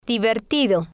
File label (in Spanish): "divertido", spanish